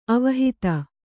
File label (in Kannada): "ಅವಹಿತ", Kannada